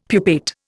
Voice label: "pupate", Indian; Dictionary